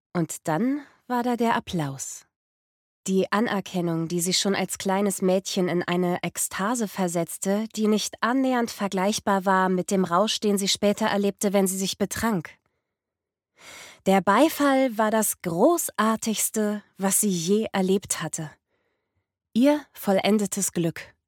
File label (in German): Hoerbuch